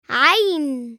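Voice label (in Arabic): "عين", Arabic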